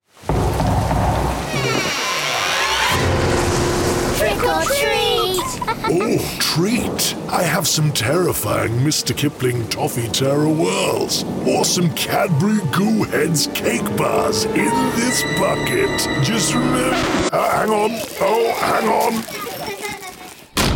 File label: halloween cakes, London Accented; mr kipling